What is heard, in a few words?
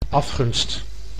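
"afgunst", Dutch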